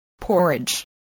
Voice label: "porridge", English